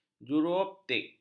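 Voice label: "juruoptik", Malay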